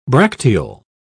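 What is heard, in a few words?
"bracteole", English, British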